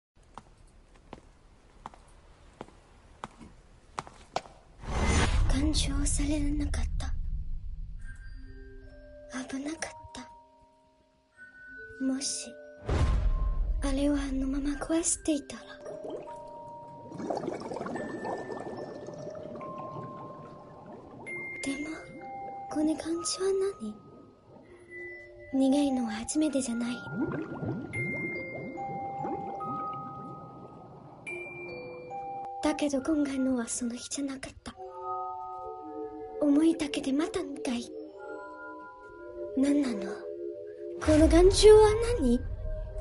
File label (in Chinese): 日语配音